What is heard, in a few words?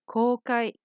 "koukai", Japanese; open